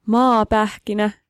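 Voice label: Finnish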